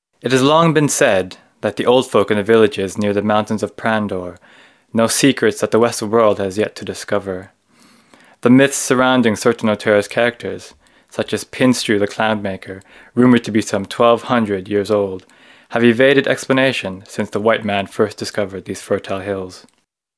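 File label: male English documentary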